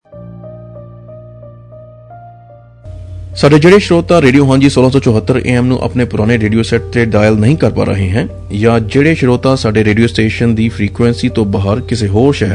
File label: Radio Set online Punjabi